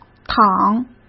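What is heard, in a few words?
"躺", Mandarin Chinese; hsk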